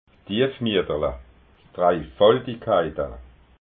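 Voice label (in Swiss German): "stiefmieterla", Alsatian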